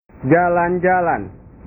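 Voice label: "jalan jalan", Indonesian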